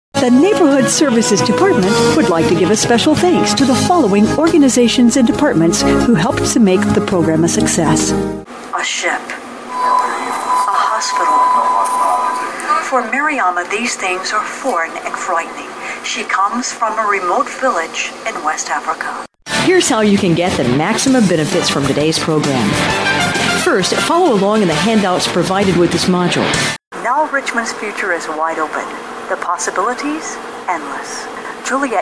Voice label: Narration